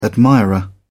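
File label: "admirer", British English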